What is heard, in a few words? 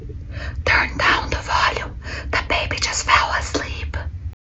Whisper Fr